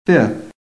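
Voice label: "fifth", American English; PHONETIC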